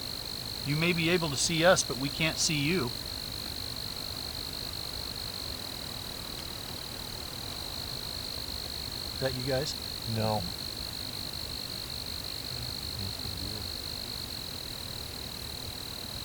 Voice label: evp; boot hill

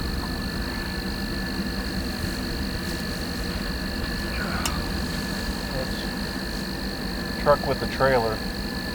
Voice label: evp; boot hill

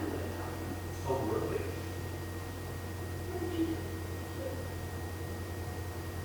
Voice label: another small voice

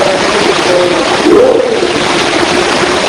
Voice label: evp; shelton hotel; ricks olympus

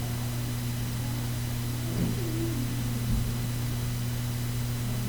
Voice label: basement voice

